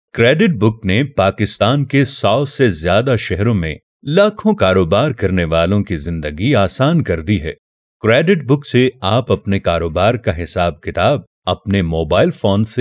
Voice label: Mobile App VO Treated; Credit Book